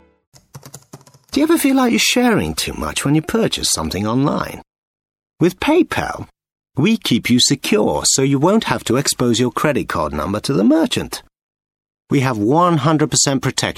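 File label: Strong, gritty, grounded and assured; PayPal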